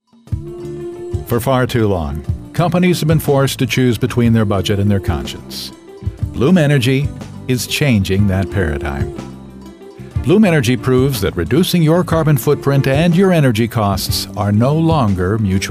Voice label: Corporate